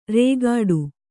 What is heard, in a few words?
"ರೇಗಾಡು", Kannada